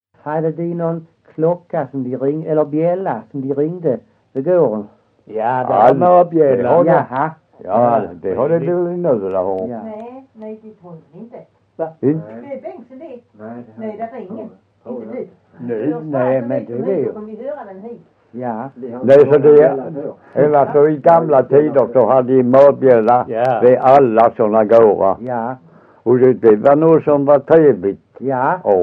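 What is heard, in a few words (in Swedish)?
Össjö Skåne, dialekter, svenska sagor; Folkminnen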